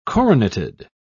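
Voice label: "coroneted", British English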